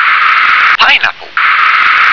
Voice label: "pineapple", English

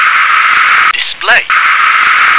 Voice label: "display", English

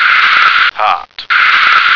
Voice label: "hot", American

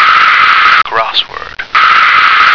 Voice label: "crossword", American

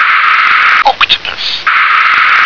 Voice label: "octopus", English

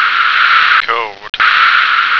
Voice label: "code", American

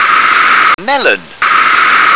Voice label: "melon", English

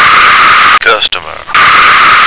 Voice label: "customer", American

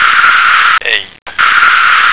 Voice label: "ape", American

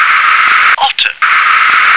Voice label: "otter", English

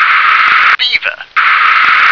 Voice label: "beaver", English

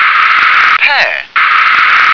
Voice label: "pear", English

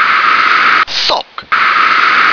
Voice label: "sock", English

